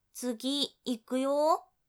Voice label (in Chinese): 开始下一个了哦ー, 女生为你进行健身计数的语音, 少女